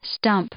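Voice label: "stump", English, female; noun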